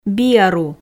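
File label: "биару", Komi, female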